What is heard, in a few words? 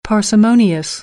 "parsimonious", American English